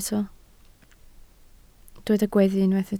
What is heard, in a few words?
"gweddu", Welsh